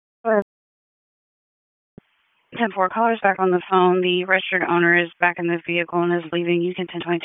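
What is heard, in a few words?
Police Dispatch; Wake Forest Police Disp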